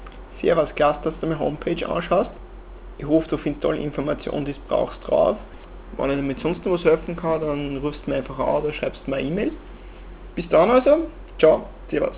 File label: hear me oesterreichisch